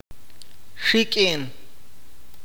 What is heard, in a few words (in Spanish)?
"volar", Awakateko